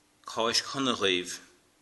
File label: easter, Irish